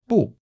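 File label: "不", Chinese; HSK1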